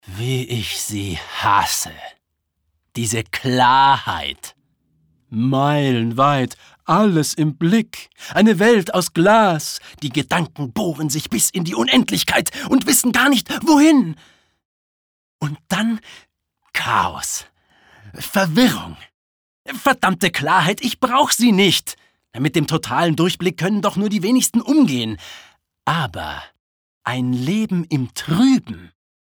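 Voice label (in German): acting, Energisch; Klarheit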